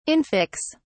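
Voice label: "infix", British English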